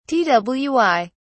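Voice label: "twi", English, British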